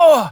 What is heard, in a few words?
Pain, Battle Barks; Warframe; BaroDefense